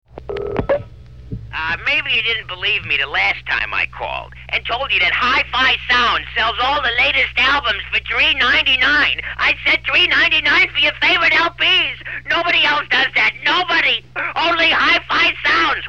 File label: commercial insane guy on phone; Hi-Fi Soundz